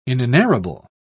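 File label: "inenarrable", British English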